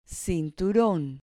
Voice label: "cinturon", Spanish

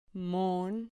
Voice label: "mon", Spanish syllable

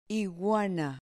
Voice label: "iguana", Spanish

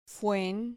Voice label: "fuen", Spanish syllable

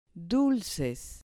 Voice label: "dulces", Spanish

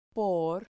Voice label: "por", Spanish

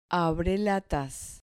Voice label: "abrelatas", Spanish

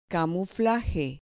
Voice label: "camuflaje", Spanish

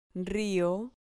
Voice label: "rio", Spanish syllable

